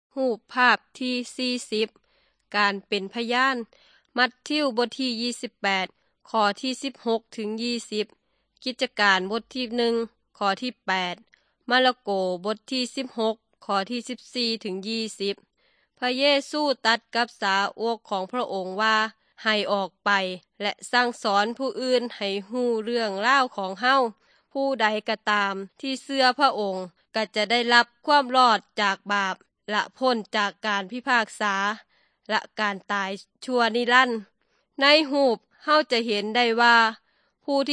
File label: good news thai northeastern